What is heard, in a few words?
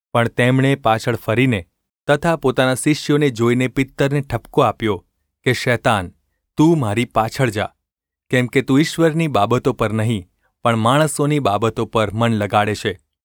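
gu ulb mrk, Gujarati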